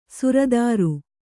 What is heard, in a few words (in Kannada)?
"ಸುರತರು", Kannada